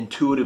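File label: "intuitive", US English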